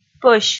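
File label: "пӧсь", Komi, female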